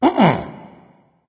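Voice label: disagree, dragon; fafnir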